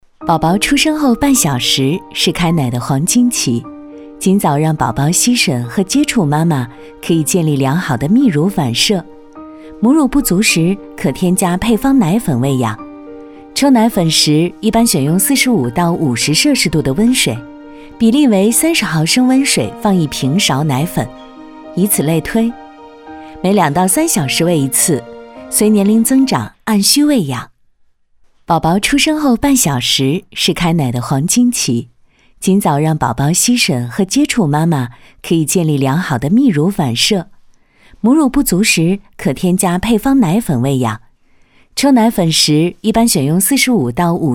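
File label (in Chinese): 女 母婴类甜美温馨母性栏目解说, 国语配音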